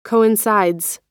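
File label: "coincides", American English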